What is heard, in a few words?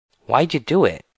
Angry